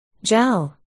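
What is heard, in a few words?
"gel", spoken word, articulation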